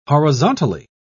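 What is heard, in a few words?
"horizontally", British English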